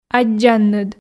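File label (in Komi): "аддзанныд", Komi, female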